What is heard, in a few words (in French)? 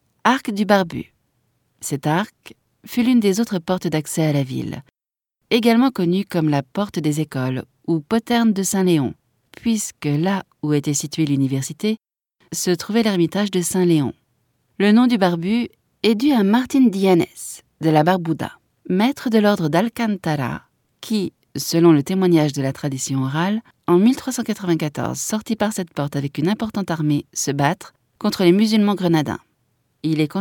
audioguia, frances; baeza